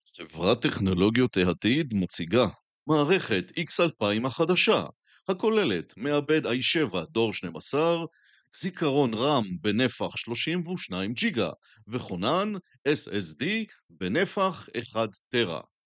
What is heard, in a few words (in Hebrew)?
קריאה טכנית